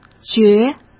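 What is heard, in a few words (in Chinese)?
"绝", Mandarin Chinese; chinese-flashcards; hsk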